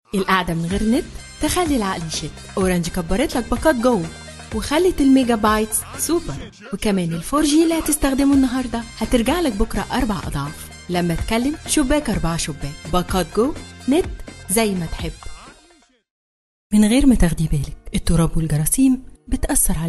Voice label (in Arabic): برومو اعلانات